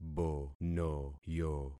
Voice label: "bonoyo", concat syllables; Affixing experiment

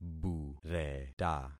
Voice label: "bureta", concat syllables; Affixing experiment

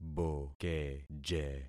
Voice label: "bokeje", concat syllables; Affixing experiment

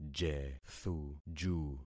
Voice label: "jethuju", concat syllables; Affixing experiment

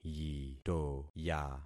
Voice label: "yitoya", concat syllables; Affixing experiment

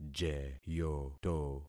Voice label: "jeyoto", concat syllables; Affixing experiment

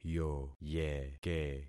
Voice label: "yoyeke", concat syllables; Affixing experiment

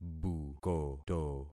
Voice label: "bukoto", concat syllables; Affixing experiment

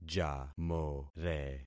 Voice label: "jamore", concat syllables; Affixing experiment

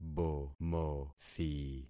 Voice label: "bomothi", concat syllables; Affixing experiment

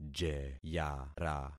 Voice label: "jeyara", concat syllables; Affixing experiment

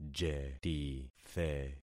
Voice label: "jetithe", concat syllables; Affixing experiment

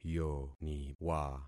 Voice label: "yoniwa", concat syllables; Affixing experiment

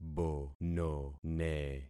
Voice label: "bonone", concat syllables; Affixing experiment

